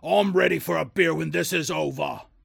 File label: Dwarf Enroute Cocky